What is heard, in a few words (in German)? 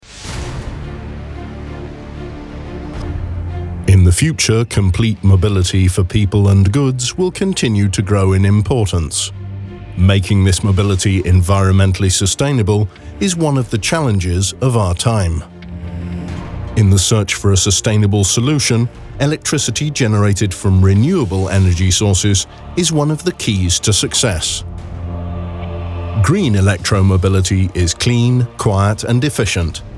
Dynamisch